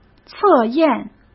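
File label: "测验", Mandarin Chinese; chinese flashcards; hsk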